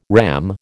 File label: "RAM", American